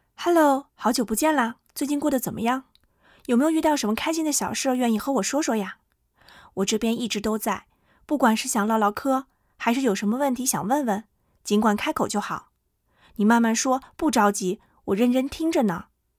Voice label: Sample B Female

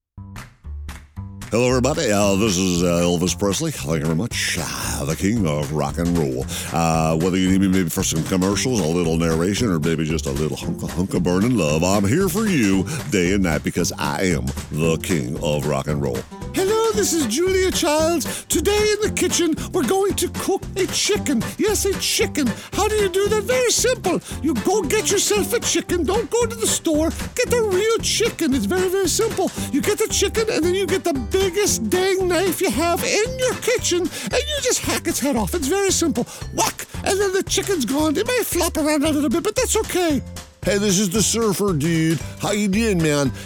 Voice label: Character

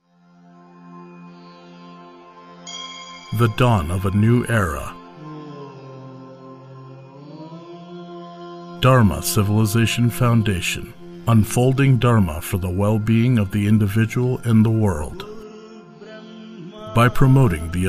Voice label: Narration

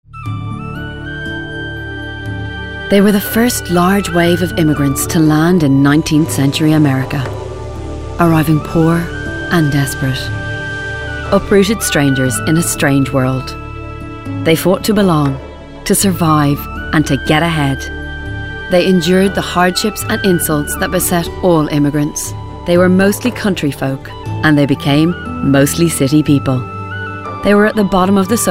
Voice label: Narrative Reel

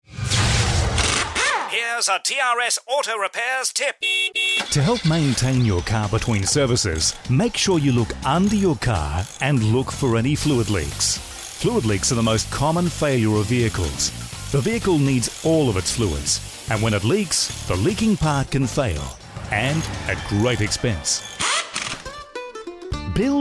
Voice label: Voice Samples On Hold IVR

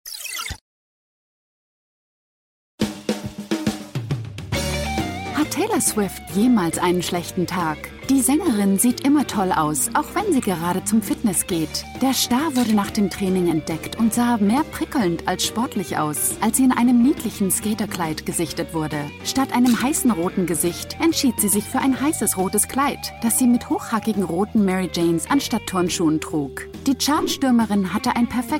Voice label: News Germany; Splash News

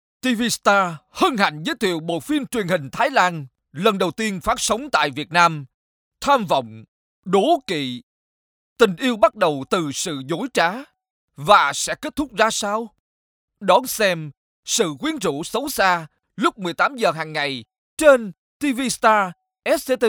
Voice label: Trailer

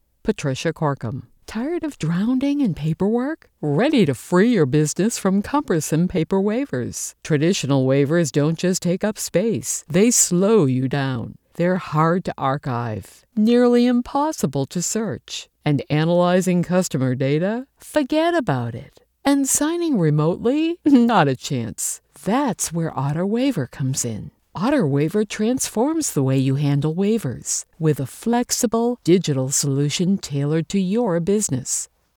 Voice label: Explainer video; OtterWaiver

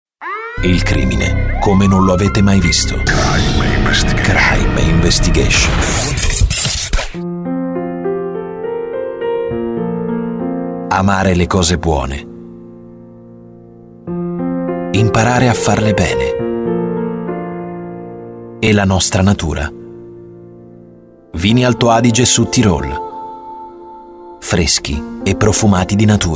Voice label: ITALIAN VOICEOVER

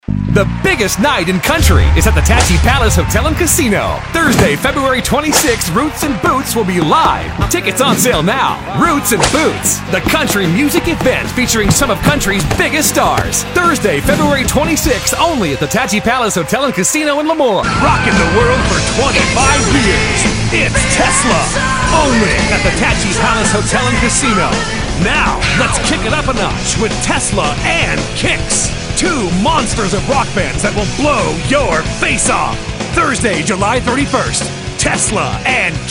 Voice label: High Energy

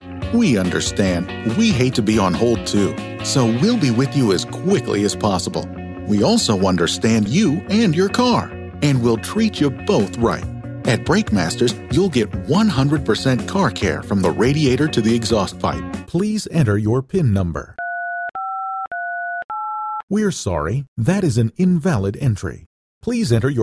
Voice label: Telephone

